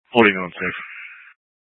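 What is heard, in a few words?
Fire Dispatch, scanner audio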